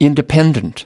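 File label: "independent", English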